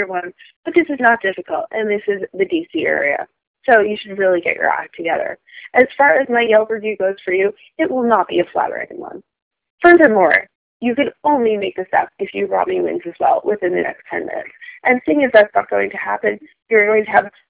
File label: pizza voicemail